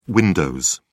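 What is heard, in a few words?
"windows", British English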